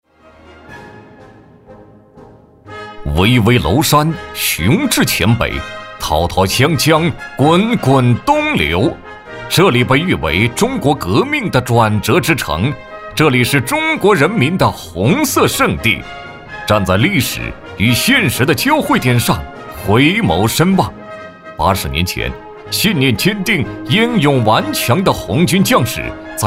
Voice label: 男国 军队专题片 力度

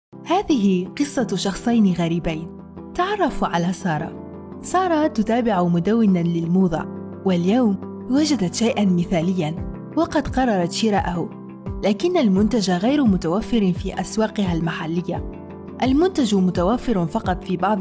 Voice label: 阿拉伯语女 外语 小语种 知性

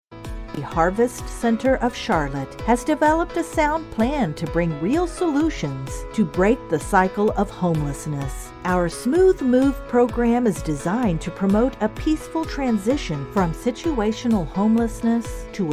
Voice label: 外籍女英 外语 美式英语 纪录片宣传片类 轻快

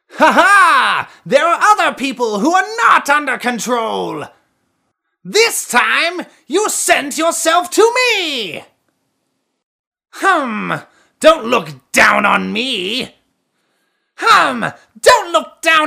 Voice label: 外籍男英 外语 美式英语 游戏反派角色

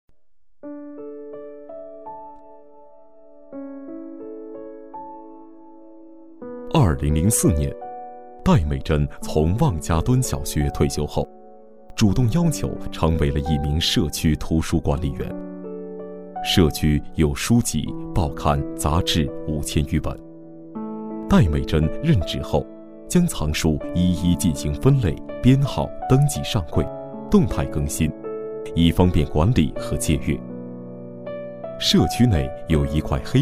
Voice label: 专题 人物 人物介绍, 男国

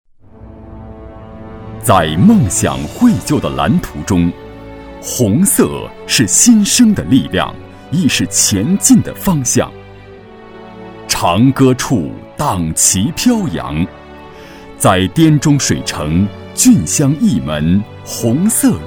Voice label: 专题 党建 社区党建示范点创建 抒情, 男国; 兴文街社区党建示范点创建

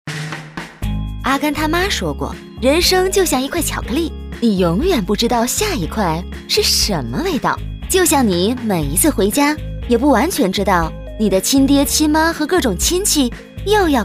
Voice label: 宣传片 病毒; 薛定谔的春节

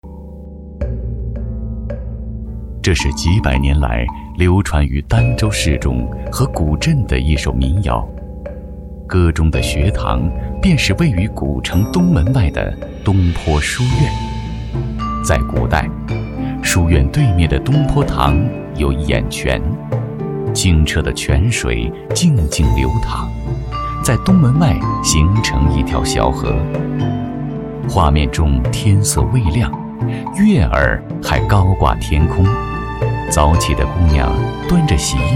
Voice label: 男 纪录片 人文历史; 东坡书院

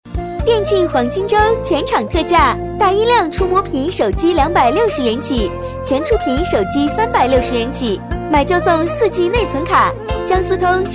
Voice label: 广告 促销; 手机促销

